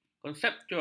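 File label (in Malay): "konseptual", Malay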